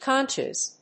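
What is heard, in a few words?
"conchs", English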